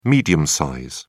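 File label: "medium-size", English, British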